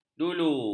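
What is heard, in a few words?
"dulu", Malay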